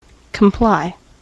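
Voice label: "comply", US English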